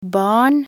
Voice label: "barn", nb_NO

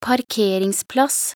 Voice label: "parkeringsplass", nb_NO

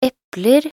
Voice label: "epler", nb_NO

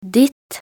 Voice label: "ditt", nb_NO

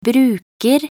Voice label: "bruker", nb_NO

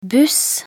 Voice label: "buss", nb_NO

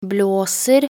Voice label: "blåser", nb_NO

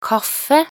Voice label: "kaffe", nb_NO

